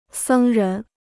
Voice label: "僧人", Chinese

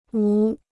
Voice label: "无", Chinese

